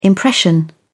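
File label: "impression", British English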